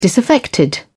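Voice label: "disaffected", British English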